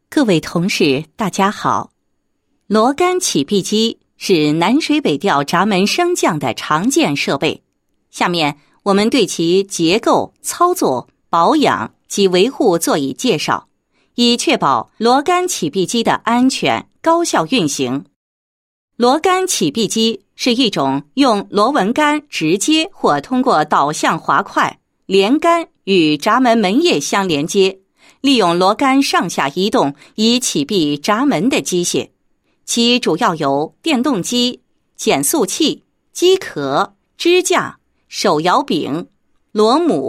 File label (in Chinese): 女 课件 教学; 南水北调螺杆启闭机